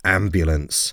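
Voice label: "ambulance", English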